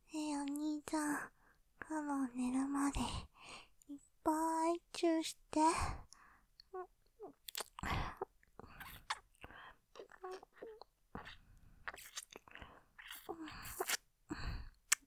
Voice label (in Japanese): 導入, ASMR; ねぇ、寝るまでいっぱいちゅうして…; ループで楽しむ！シェフの気まぐれ抜き抜きコース; モノラル